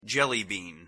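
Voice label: "jellybean", English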